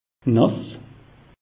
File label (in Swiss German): "nass", alsace; meteo